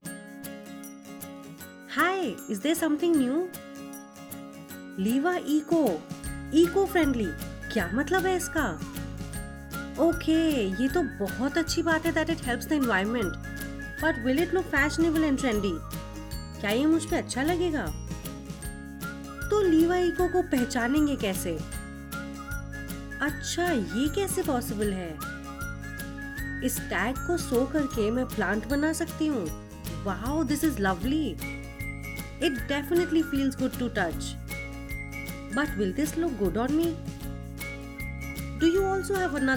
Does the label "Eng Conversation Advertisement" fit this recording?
Yes